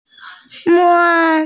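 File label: "me1", Mandarin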